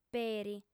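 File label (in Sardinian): "peri", female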